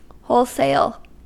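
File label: "wholesale" English, US; pronunciations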